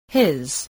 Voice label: "his", English, spelling